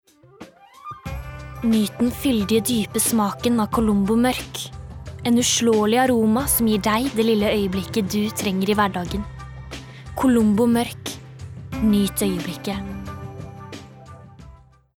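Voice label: Norwegian soft sell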